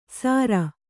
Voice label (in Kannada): "ಸಾರ", Kannada